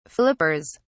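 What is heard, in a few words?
"flippers", British English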